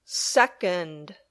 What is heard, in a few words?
"second", English